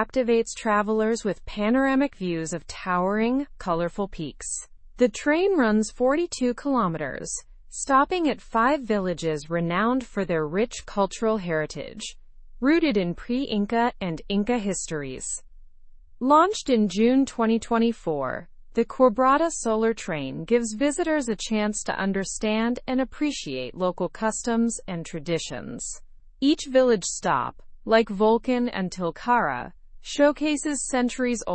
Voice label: learn english through news upper intermediate; ride argentina s eco friendly solar powered train